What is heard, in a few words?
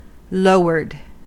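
"lowered", US English; pronunciations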